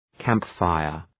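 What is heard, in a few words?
"campfire", English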